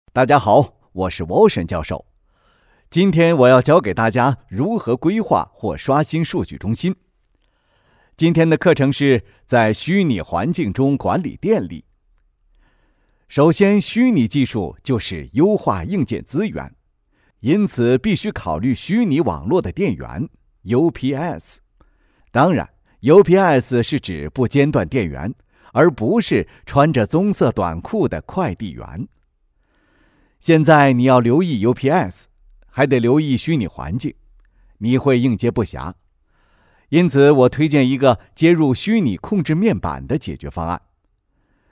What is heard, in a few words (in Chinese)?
男 模仿 外国教授